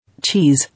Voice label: "Cheese", English